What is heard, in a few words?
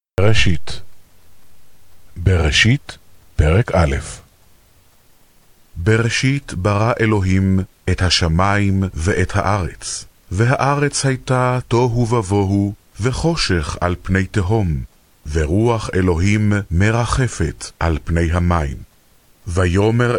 hebrew